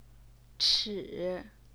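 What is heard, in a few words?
"chi", third tone, Chinese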